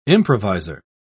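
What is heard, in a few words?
"improviser", British English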